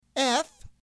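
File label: "F", English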